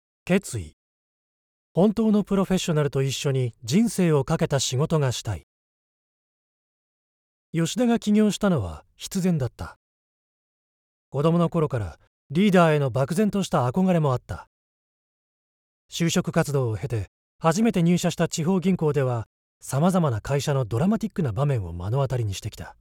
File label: male